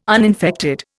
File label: "uninfected", Indian